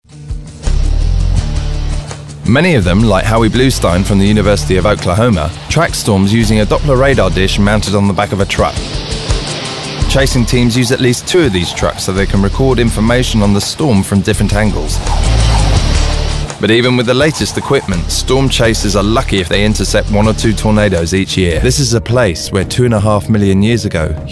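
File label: narration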